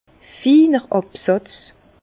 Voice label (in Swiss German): "finer", Bas Rhin; vin; fin dépot